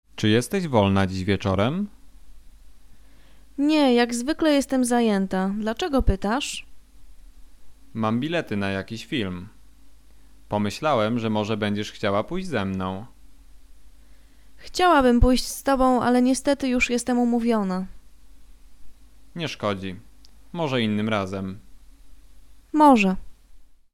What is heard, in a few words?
conversation; lektorek; firstyear